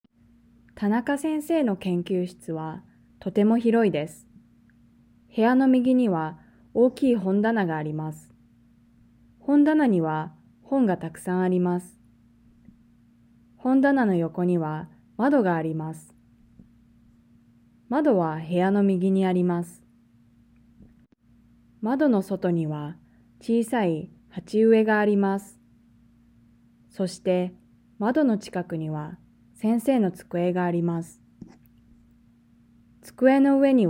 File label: Reading natural; Nakama; OJAD